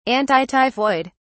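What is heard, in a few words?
"antityphoid", British English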